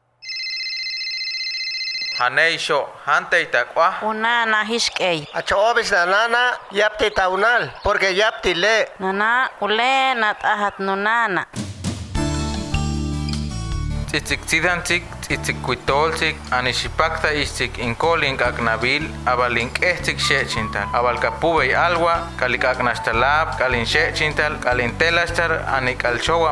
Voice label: Lengua Tenek; FI Tantoyuca

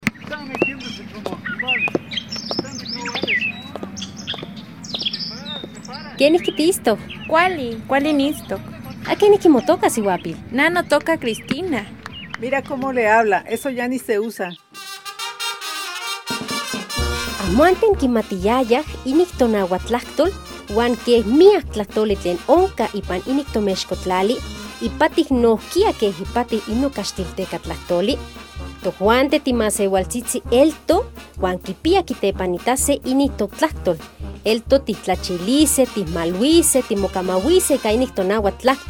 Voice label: Lengua Huasteca; Proteccion, preservacion de las lenguas indigenas; FI Huayacocotla

